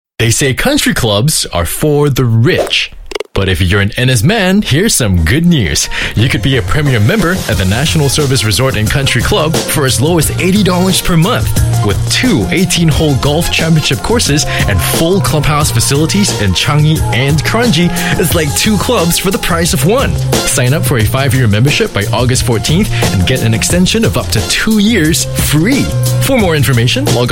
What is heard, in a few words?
Asian English Commercial; NSRCC